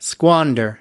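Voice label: "squander", American English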